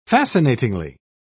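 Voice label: "fascinatingly", British English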